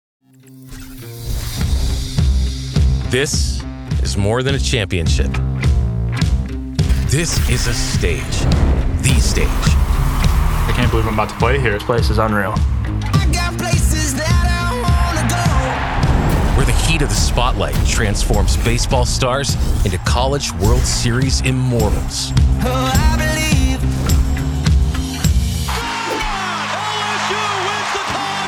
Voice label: Habillage Vorschauvideos; NATIVE PROFISPRECHER